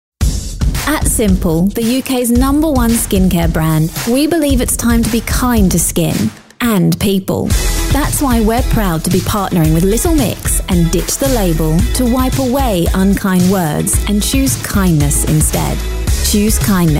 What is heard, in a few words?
Radio Ad; SIMPLE